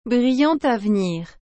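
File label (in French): "brillant avenir", French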